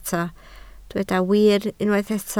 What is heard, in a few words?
"wir", Welsh